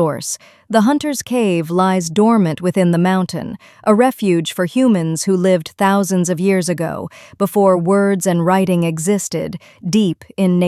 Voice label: bisotun spring english; bistoun mirage